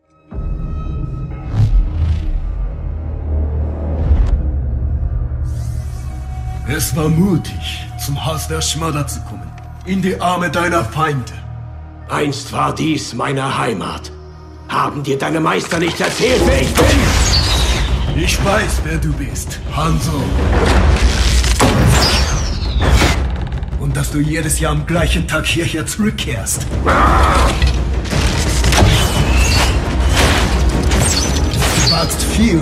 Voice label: Synchron Hoerspiel und Game

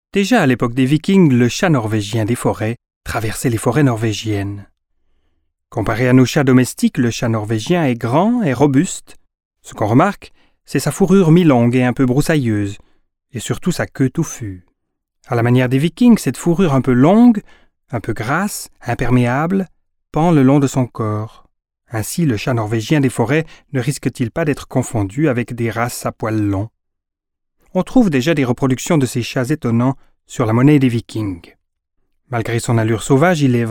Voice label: dokfilm

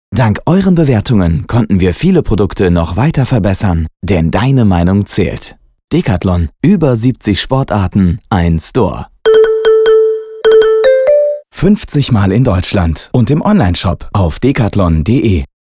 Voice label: Radio Werbung; DECATHLON

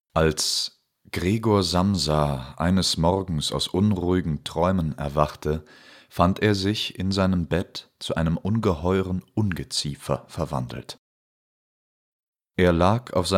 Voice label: Die Verwandlung Hoerbuch

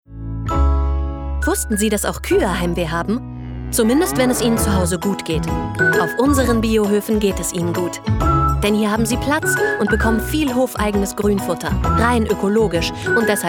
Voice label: Werbung; Bio

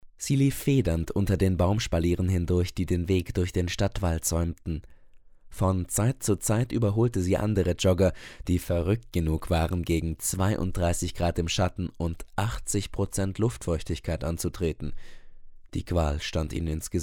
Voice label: Sprechprobe Hörbuch

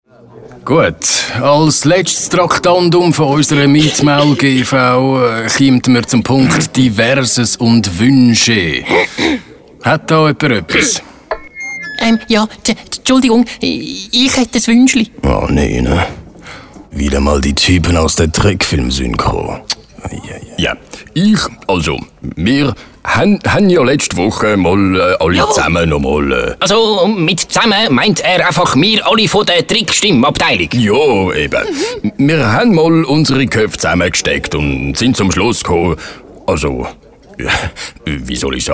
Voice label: trickstimmen mundart

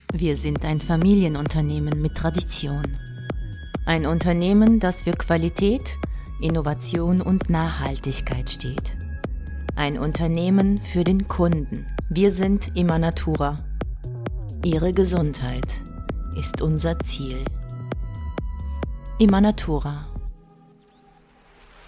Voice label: Imagefilm Deutsch

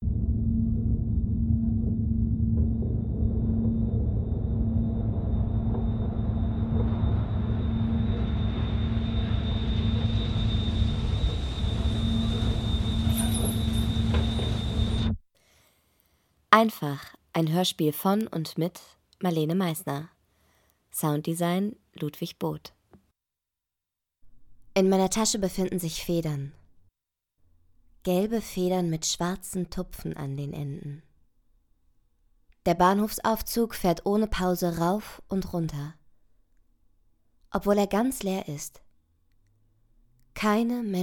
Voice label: Kurzhörspiel; Einfach

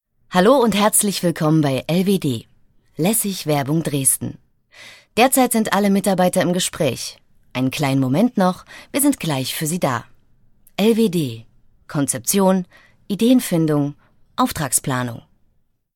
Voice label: warteschleife ohne musikbett

